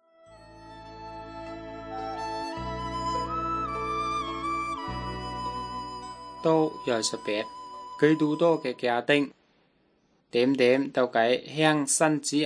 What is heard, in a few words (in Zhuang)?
good news zhuang nanning fushu